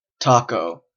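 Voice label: "taco" Canadian English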